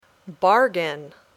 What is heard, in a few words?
"bargain", English